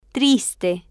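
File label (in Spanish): "triste", Spanish, female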